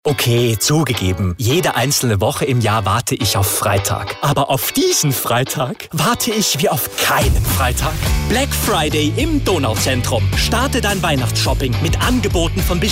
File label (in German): Werbung Black Friday